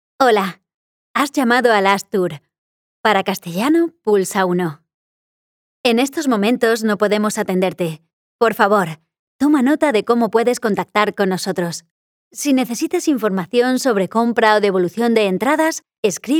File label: Spanish IVR; actriz de doblaje española